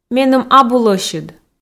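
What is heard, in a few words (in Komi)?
меным абу лӧсьыд, Komi, female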